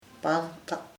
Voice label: wet, chamacoco; mojado